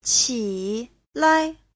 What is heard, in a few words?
"qi3lai5", chinese; 起来